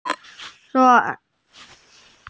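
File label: "beng4", Mandarin Chinese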